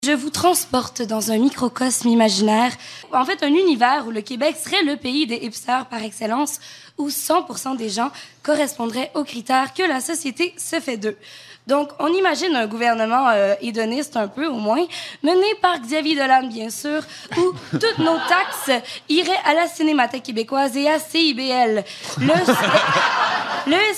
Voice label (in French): Démo Radio